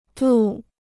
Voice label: "渡", Chinese

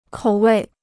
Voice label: "口味", Chinese

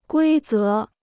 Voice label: "规则", Chinese

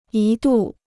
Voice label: "一度", Chinese

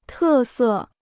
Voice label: "特色", Chinese

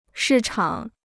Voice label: "市场", Chinese

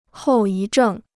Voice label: "后遗症", Chinese

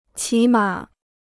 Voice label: "起码", Chinese

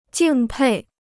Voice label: "敬佩", Chinese

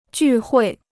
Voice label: "聚会", Chinese

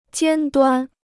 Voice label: "尖端", Chinese

